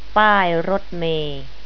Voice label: "pai-rod-may", Thai